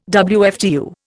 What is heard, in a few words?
"WFTU", Indian